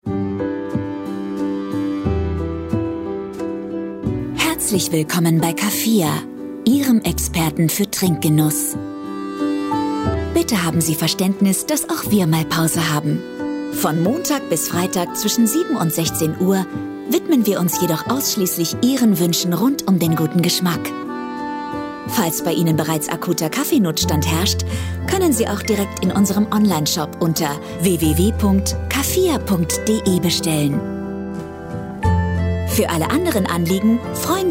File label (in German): Audioproduktion Anrufbeantworter; Wagler Marketing; Caffia